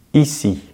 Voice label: "ici", French; Vocales orales en francés; easypronunciation